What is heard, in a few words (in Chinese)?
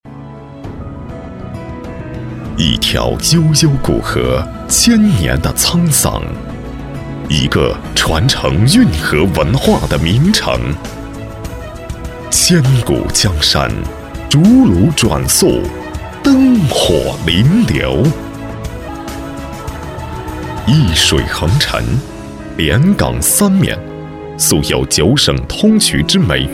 男国 宣传片 城市 稳重; 镇江宣传片